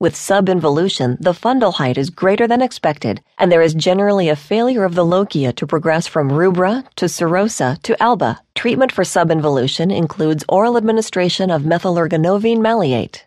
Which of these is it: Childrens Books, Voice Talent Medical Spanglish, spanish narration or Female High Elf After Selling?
Voice Talent Medical Spanglish